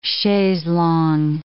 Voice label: "chaise longue", English